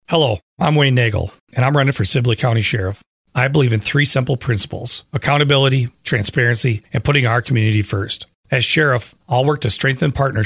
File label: radio commercial; Sibley Co Sheriff REVISED